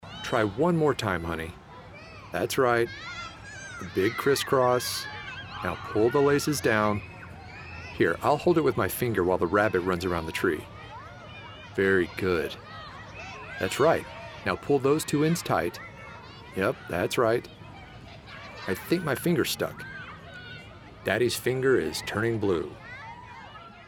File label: english american